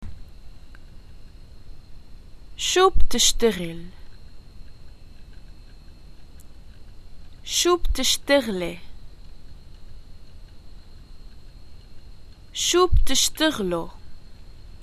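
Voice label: introductions what is your profession, Lebanese Arabic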